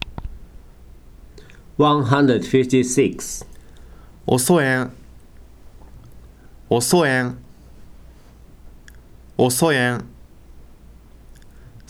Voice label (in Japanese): "osoeN", hogen